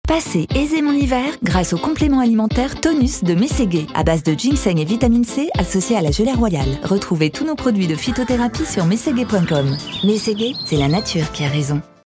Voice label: pub naturelle dynamique; MESSEGUE

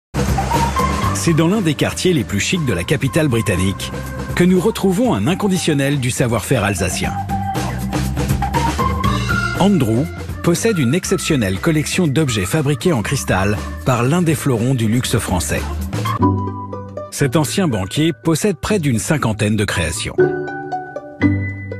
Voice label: Voix Narrative; France TV; Ils font rayonner la France

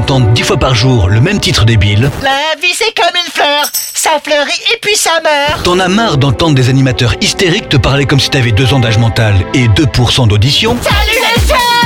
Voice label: mauvais jingle

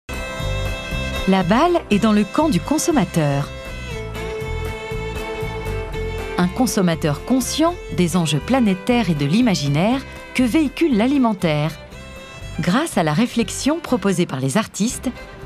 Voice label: Extrait docu voix dyn posée; Food 3.0

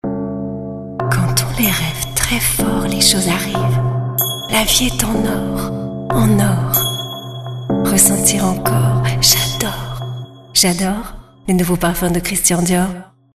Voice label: Sensuel mystérieux coquin; Dior

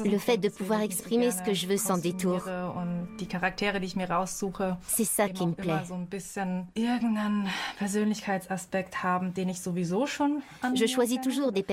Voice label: Voice over femme cosplay film; Arte

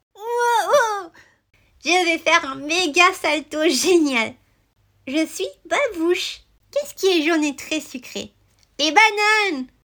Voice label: PERSONNAGE ENFANT casting; Babouche le singe

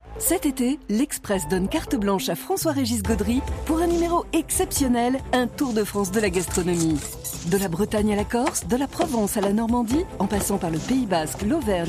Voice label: Promo radio Dynamique sourire

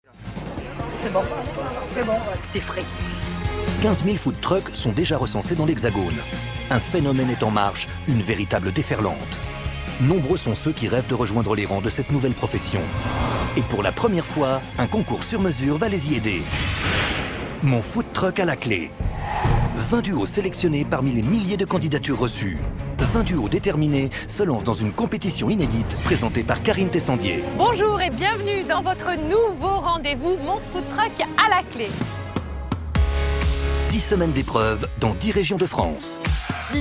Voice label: naturel dynamique; Foodtruck

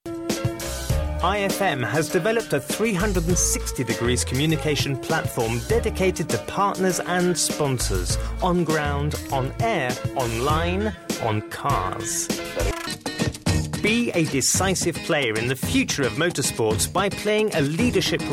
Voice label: Spot anglais sport

